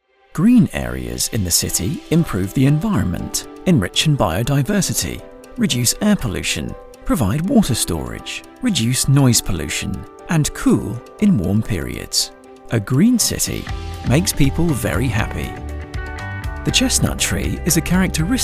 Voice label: corporate video young UK male voice over; Treehold